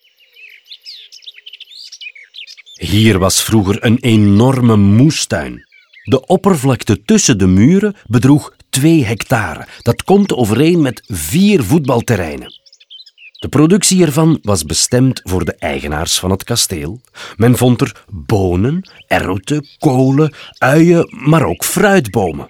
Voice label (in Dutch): audioguide nl enfant; jardin; modave